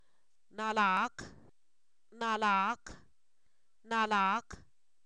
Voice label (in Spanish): ancho, Zapoteco, san miguel albarradas; adjetivos